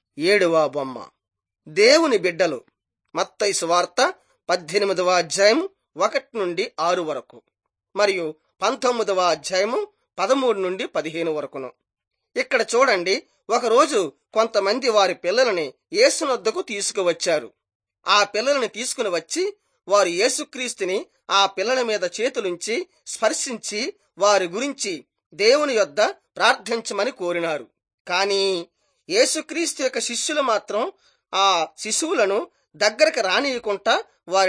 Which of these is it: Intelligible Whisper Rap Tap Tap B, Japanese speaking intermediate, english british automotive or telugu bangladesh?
telugu bangladesh